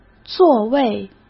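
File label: "座位", Mandarin Chinese; chinese flashcards; hsk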